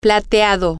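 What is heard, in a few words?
silver, Spanish, female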